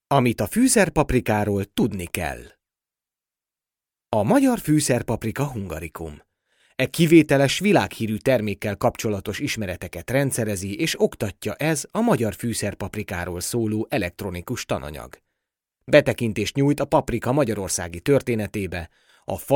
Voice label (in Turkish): Macarca Erkek, seslendirme; erkek sesler